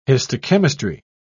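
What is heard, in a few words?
"histochemistry", British English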